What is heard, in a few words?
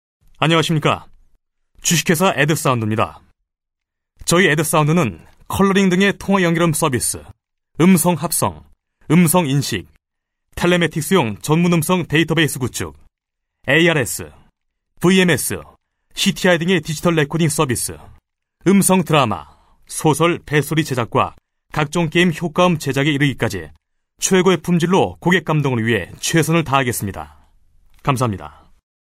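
Korean male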